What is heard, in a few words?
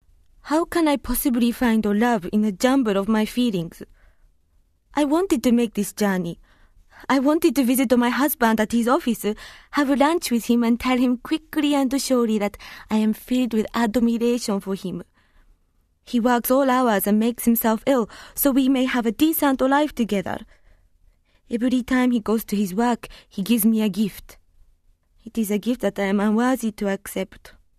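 Japanese, English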